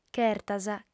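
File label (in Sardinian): "chertas", female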